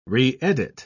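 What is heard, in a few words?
"reedit", English, British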